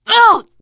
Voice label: horde attack, teen girl, survivor voice; source engine; left 4 dead 2

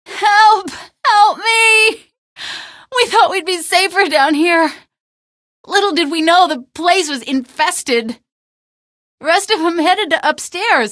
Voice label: cellar, female; hl2; streetwar; sniper; Source engine

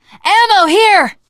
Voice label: spot ammo, teengirl; voice; source engine; left 4 dead 2; survivor

